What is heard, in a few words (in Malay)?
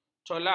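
"colak", Malay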